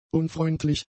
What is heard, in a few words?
"unfreundlich", German; adjectives